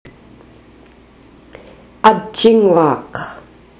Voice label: ajjinguak, inuit